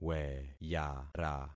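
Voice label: "weyara", concatenated syllables; Affixing experiment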